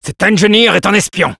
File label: Spy, fr; cloaked spy identify